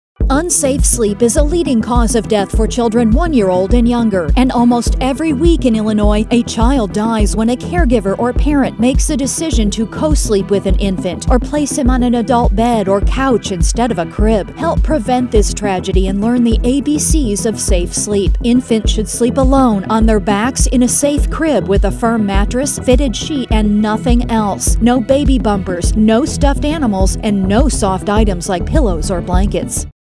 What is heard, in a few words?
public service announcements; safe sleep; DCFS